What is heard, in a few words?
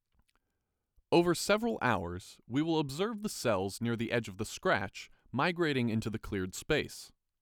Scratch Assay, Voiceover